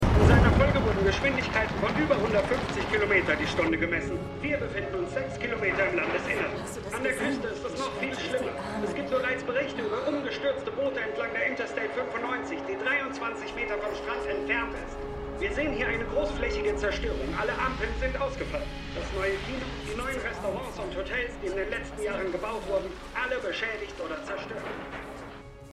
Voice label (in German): Reporter; MEMORIAL HOSPITAL - DIE TAGE NACH HURRIKAN KATRINA (DF 2022); Five Days at Memorial